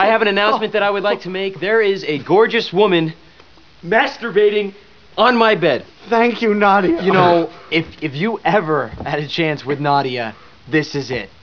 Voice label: announcement

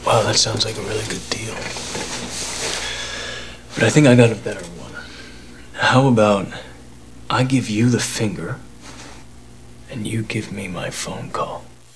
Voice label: phone call

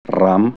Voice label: "high", hebrew sounds

